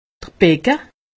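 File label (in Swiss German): "becke", Haut Rhin; medecine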